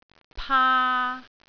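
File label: "paa3", Cantonese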